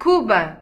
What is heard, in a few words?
"cuba", pt; gcompris; geography